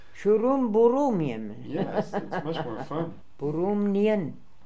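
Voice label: "Shooroombooroomnian", pronunciations; Fourth Way; Beelzebub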